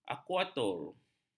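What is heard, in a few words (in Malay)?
"akuakultur", Malay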